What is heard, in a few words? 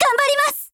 Voice JP; Peri